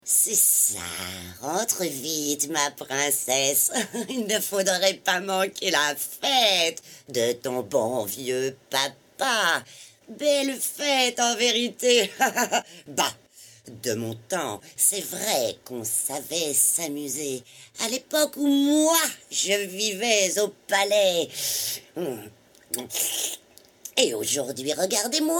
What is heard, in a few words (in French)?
Personnage Sorciere; Ursula petite sirene